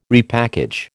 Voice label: "repackage", American